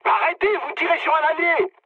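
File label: French player friendly fire mood med; War Thunder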